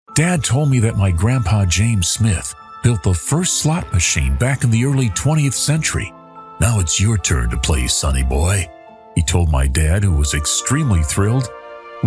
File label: english american audiobooks